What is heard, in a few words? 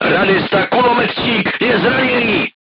voice message machine gunner wounded, crew tanks czech